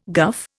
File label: "guff", Indian